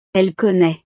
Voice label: "elle connait", frances

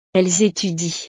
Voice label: "elles etudient", frances

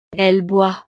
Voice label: "elle boit", frances

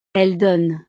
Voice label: "elles donnent", frances

